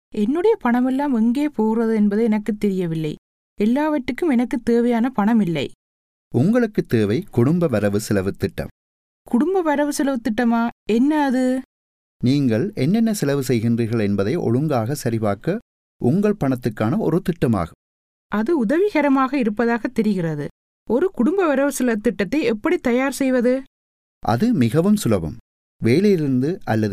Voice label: household budgets tamil

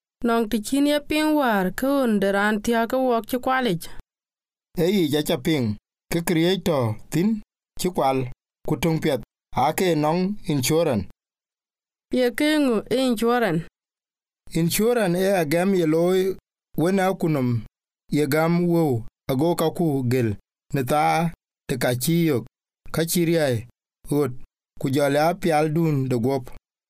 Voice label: insurance dinka

